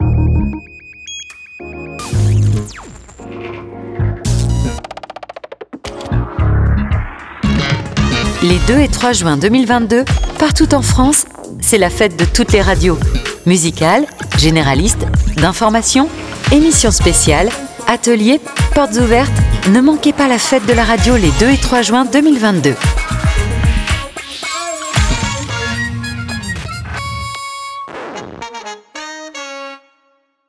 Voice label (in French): spot; Fete de la radio 30sec